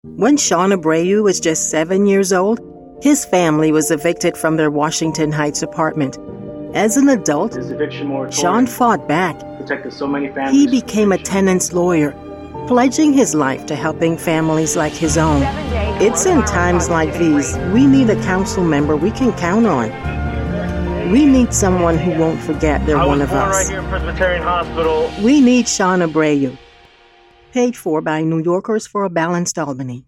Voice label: Political advertisement

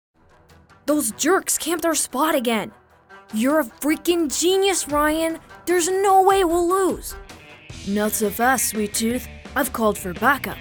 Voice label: Male Characters

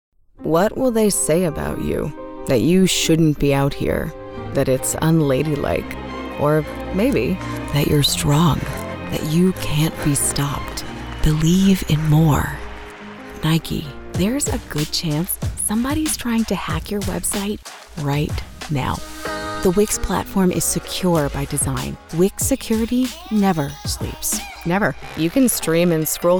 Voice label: Commercial Demo

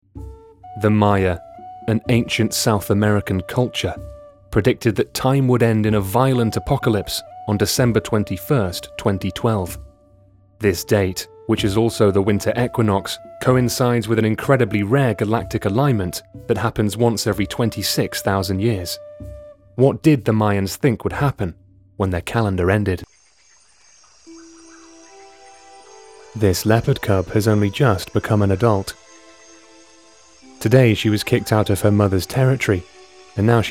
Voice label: Narration Voiceover

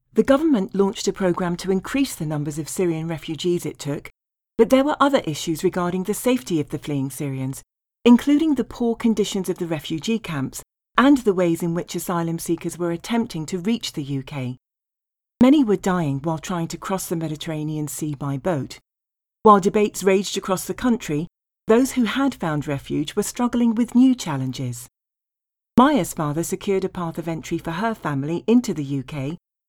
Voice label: BRITISH VALUES EDUCATIONAL

